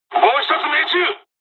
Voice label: japanese, dialogs japanese; War Thunder; first wave